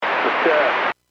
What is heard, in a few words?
Aviation 121.5 Emergency